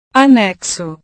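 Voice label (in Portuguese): "anexo", Portuguese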